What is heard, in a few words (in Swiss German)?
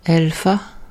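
"elfa", Alsatian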